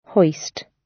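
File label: "hoist", British; Design and innovation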